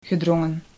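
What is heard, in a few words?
"gedrongen", Dutch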